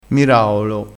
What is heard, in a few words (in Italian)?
"miraolo", crespinese